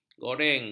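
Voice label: "goreng", Malay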